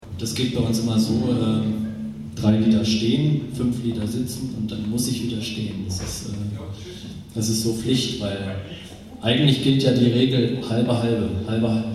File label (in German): Ansage